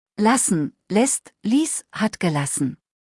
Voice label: "lassen", deutsch; verben kurzliste